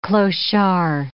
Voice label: "clochard", English